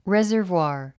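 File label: "reservoir", English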